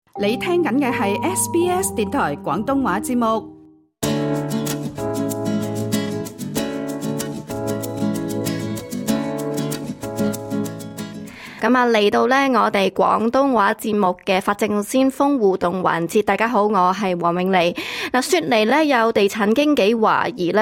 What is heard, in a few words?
cantonese; 【法政先鋒】地產代理有何法律責任 業主如何避免被「食價」？; 誠信人責任 （Fiduciary Duties); SBS Chinese